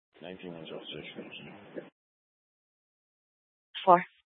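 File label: Mesa Police Department Superstition Dispatch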